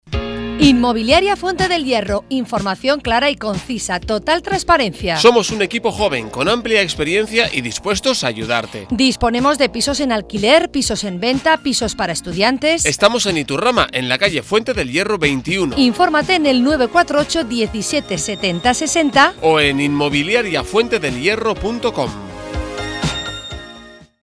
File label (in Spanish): anuncio radio; servicios publicidad